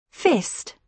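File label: "fist", British English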